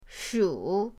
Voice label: "shu3", pinyin